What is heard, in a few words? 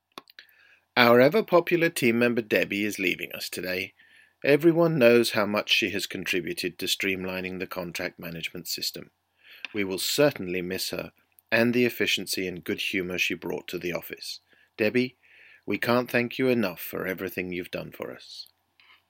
toast speech